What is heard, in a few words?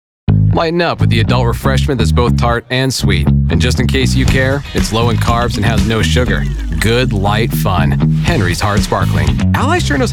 commercials